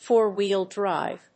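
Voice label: "four-wheel-drive", English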